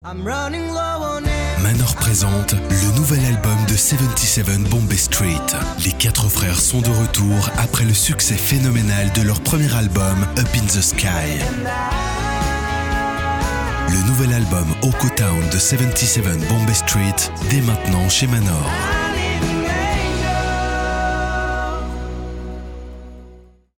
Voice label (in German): Werbung FR CH; franzoesisch schweiz